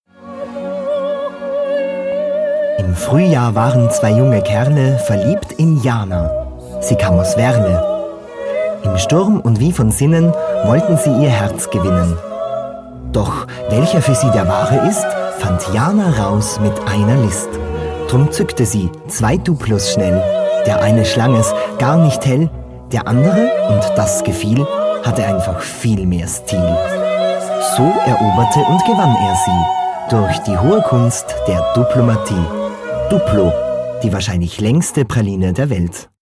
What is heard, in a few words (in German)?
Werbung